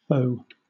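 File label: "faux", British English; pronunciations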